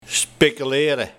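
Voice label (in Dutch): "Spekelere", dialect; Tobben, nadenken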